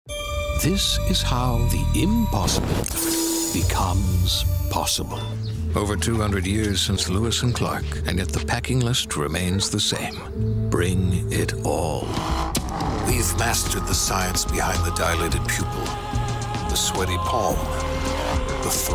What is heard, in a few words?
Male Commercial